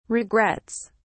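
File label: "regrets", British English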